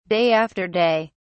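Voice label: "day after day", English, British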